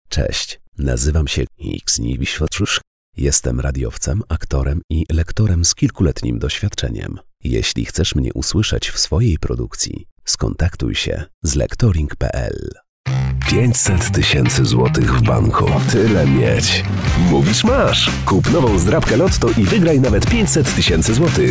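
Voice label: Polish male; lektoring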